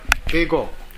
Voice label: "bego", minamiyamagata

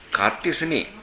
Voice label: "gattesune", minamiyamagata

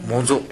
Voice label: "mozo", minamiyamagata

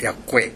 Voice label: "yakkoe", minamiyamagata; やわらかい。

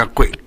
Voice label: "yakkoe", minamiyamagata; やわらかい。

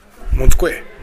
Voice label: "montukoe", minamiyamagata

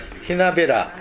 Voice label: "henabera", minamiyamagata

